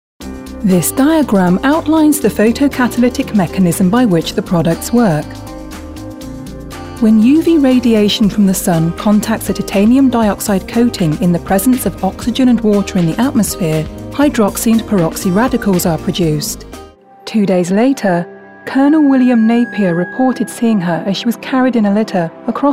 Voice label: Narration

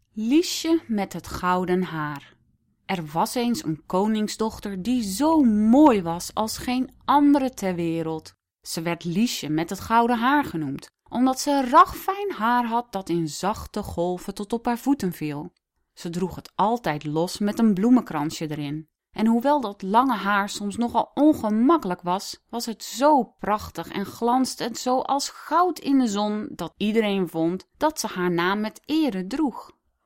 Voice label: Dutch Fairy Tale

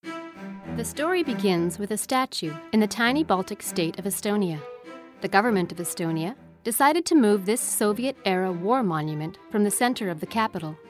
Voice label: Documentaries

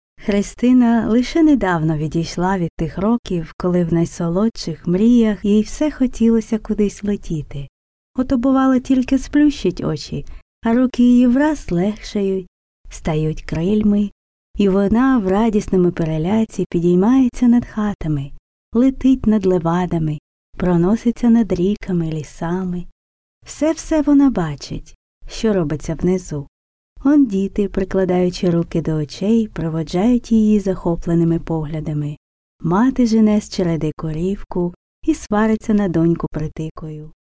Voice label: Ukrainian audiobook

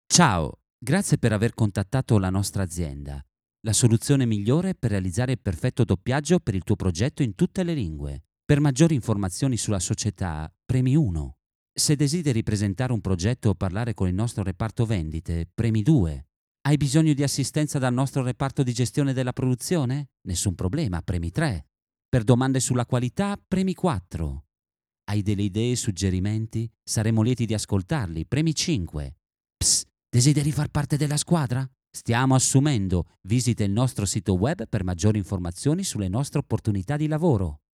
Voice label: ITALIAN MALE IVR CORPORATE MESSAGE

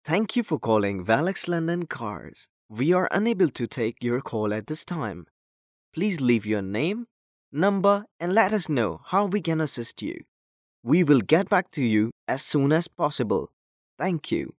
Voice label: cars IVR; Velox London

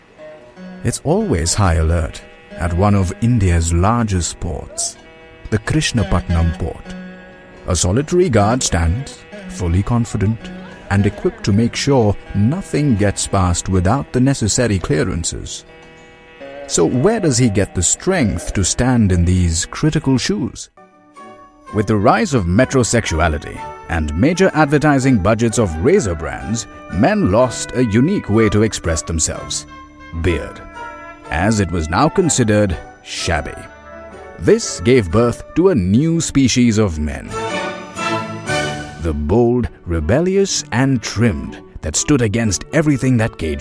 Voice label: Documentary narration

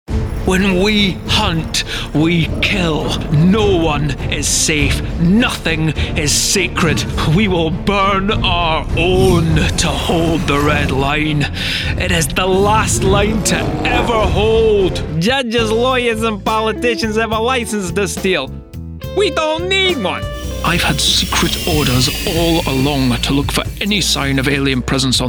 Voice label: Character

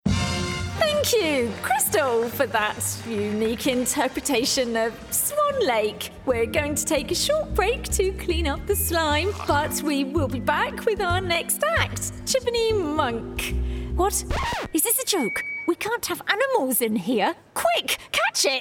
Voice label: Animation Talent Show Host

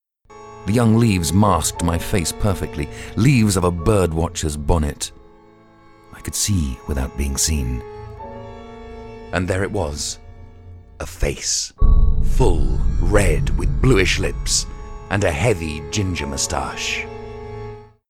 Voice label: Audiobook; The Body

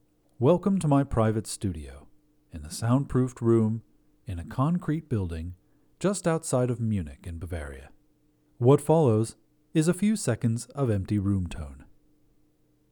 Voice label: Studio Sample

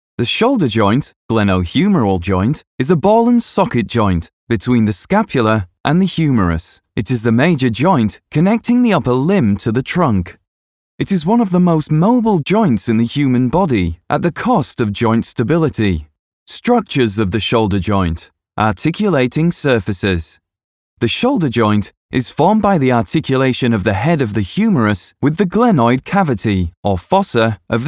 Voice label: E-Learning Biology

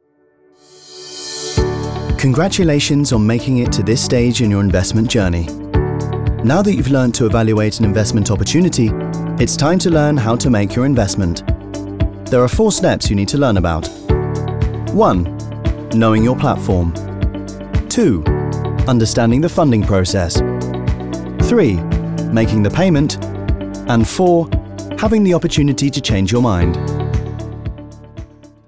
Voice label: Investing Corporate

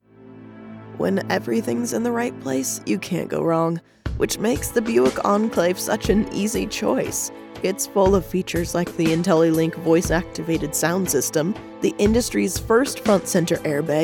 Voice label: smooth calm textured; Buick

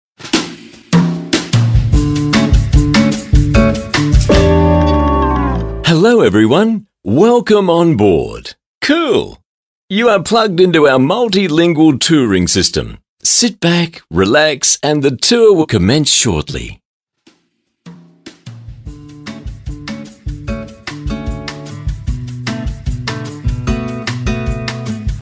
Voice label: Attraction Tour Guide

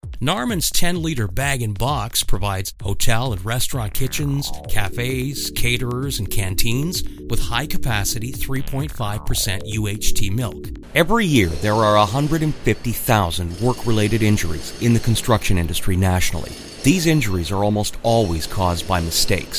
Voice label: corporate explainer

